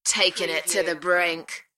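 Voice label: UK female, DJ Vocal; beanstalk-audio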